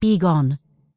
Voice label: Night Elf Female Priestess NPC Pissed